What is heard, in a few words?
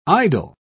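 "idyl", English, British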